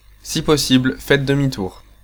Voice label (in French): Try U Turn, French, male